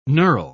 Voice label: "knurl", pronounce

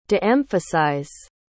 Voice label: "de-emphasize", pronounce

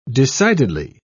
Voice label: "decidedly", pronounce

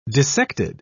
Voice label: "dissected", pronounce

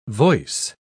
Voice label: "voice", pronounce

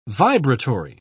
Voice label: "vibratory", pronounce

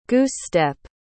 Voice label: "goose-step", pronounce

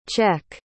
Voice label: "czech", pronounce

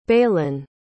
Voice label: "balen", pronounce

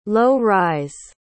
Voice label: "low-rise", pronounce

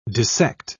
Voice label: "dissect", pronounce

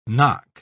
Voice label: "knock", pronounce

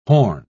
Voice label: "horn", pronounce

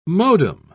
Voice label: "modem", pronounce

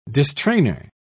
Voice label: "distrainer", pronounce

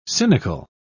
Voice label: "cynical", pronounce

